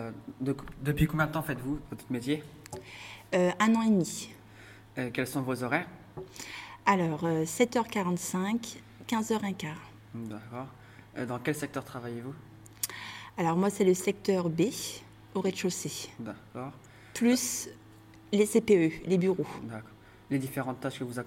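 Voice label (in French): interview femme de ménage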